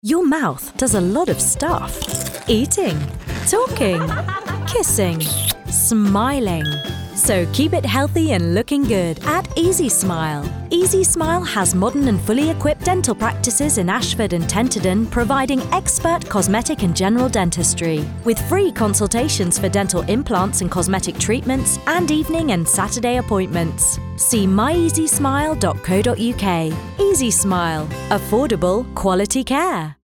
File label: Radio Ad, en-GB; Easy Smile